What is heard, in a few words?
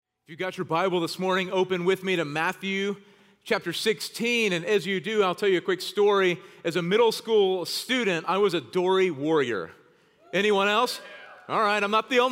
Sermon English